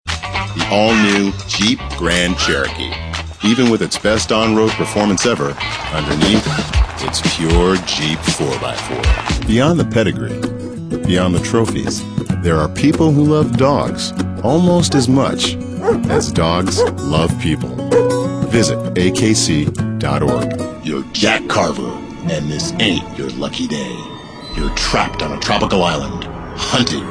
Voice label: male, mid30s mid40s cool

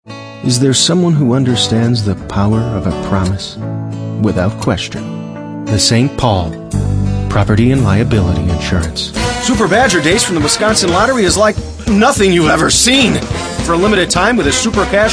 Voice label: male, natural character

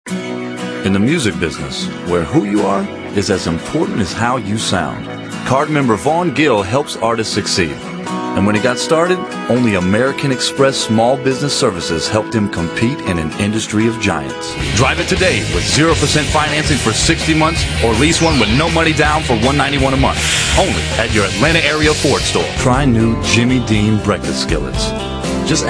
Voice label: male natural character

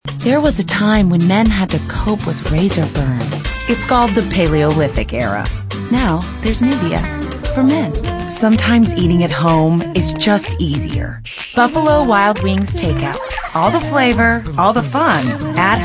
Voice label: female, late 20s mid 30s contemp

